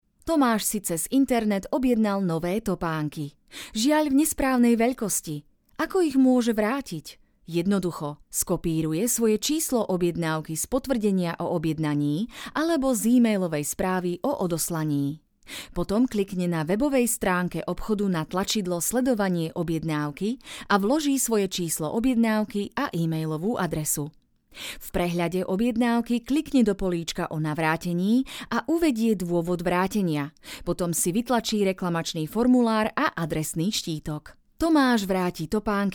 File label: Explainer Video